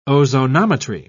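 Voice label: "ozonometry", British English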